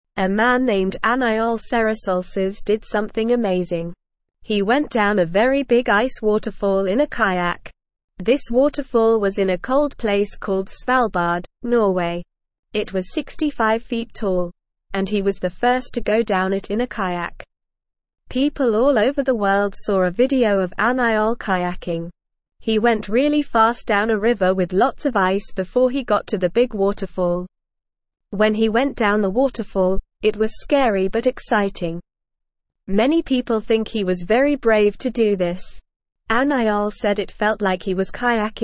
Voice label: English Lower Intermediate NORMAL Reading; English Newsroom; Man rides boat down big ice waterfall

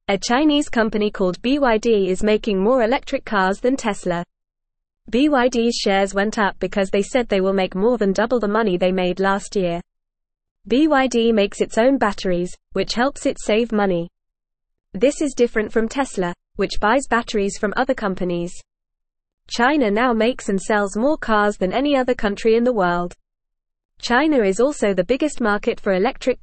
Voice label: English Newsroom Beginner FAST Reading; Chinese Company BYD Makes More Electric Cars than Tesla

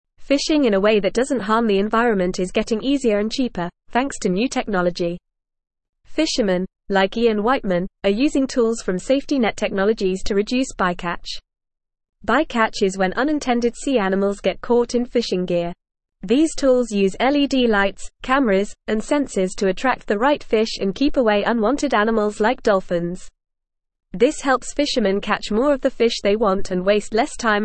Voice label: English Upper Intermediate FAST Reading; Advancements in Fishing Technology for Sustainable; English Newsroom

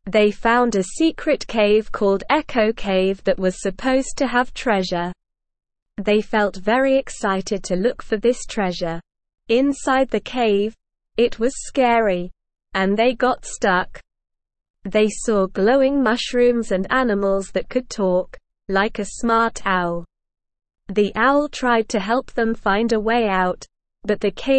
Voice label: ESL Short Stories for Kids Beginner SLOW Reading; The Enigma of Echo Cave

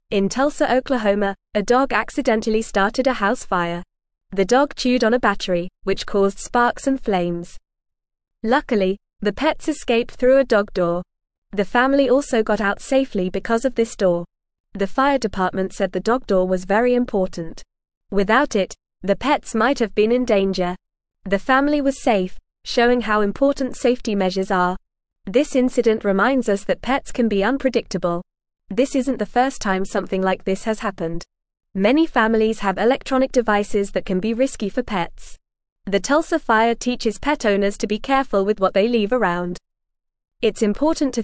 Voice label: English Lower Intermediate FAST Reading; Dog Starts Fire But Everyone Gets Out Safe; English Newsroom

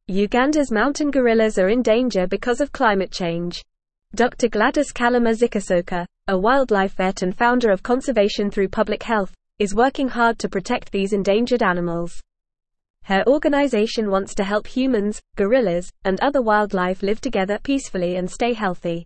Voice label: English Newsroom Upper Intermediate FAST Reading; Ugandas Wildlife Vet Saves Mountain Gorillas from C

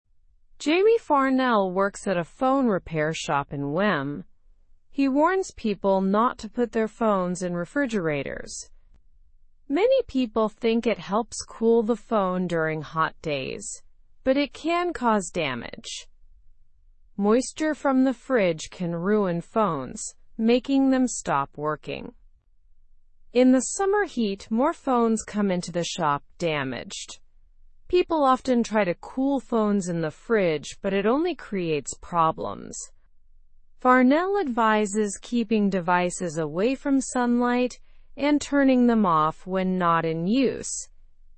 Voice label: english through news lower intermediate slow; phones don t belong in the fridge

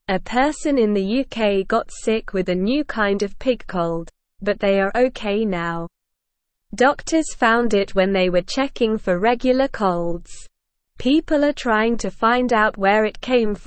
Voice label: English Beginner SLOW Reading; New Pig Cold Found in UK Doctors Watching

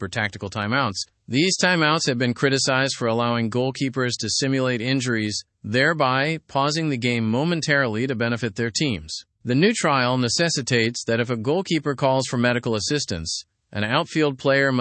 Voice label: learn english through news advanced; english football implements trial to mitigate tactical timeouts

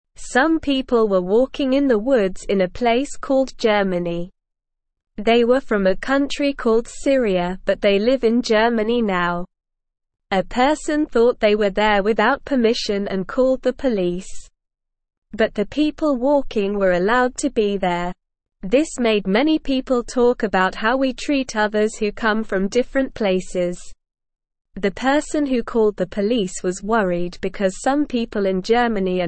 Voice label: English Beginner SLOW Reading; English Newsroom; Being Kind to People from Different Places

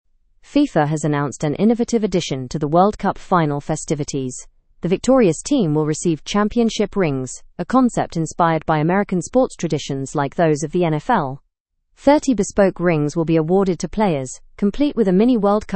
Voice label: learn english through news advanced; world cup 2026 concludes with champions rings and trump s presence

